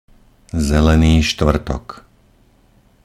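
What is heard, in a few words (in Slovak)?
"zelený štvrtok", Slovak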